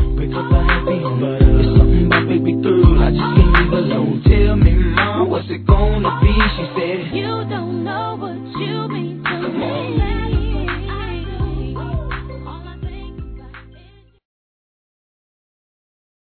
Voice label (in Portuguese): Natal Para Filho Masculino; Telemensagens; Natal e Ano novo